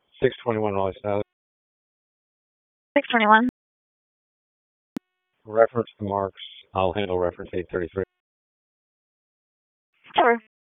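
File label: Police Southwest District Dispatch, p25; Raleigh Police Southwest District Disp; wake p25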